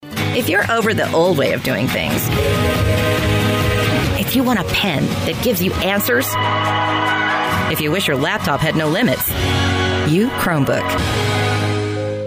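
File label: smart young textured wry confident upbeat, female; chromebook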